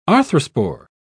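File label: "arthrospore", English, British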